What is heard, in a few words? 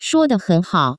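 shuo de hen hao, Taiwan Mandarin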